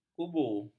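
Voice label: "kubu", Malay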